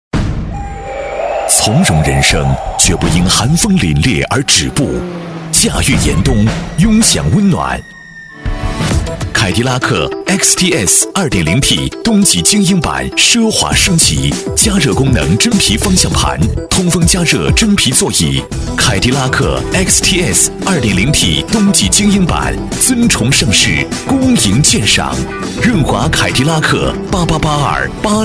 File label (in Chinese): 男 广告 品质; 凯迪拉克